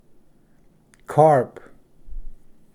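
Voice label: "carp", English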